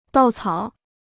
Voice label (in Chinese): "稻草", Chinese